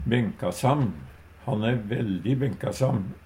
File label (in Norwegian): "benkasam", Numedalsmål; Adjektiv